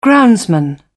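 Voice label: "groundsman", British English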